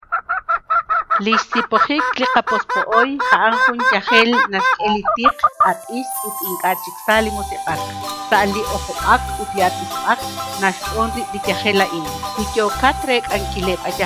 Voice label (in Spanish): Que es coronavirus Queqchi; prevencion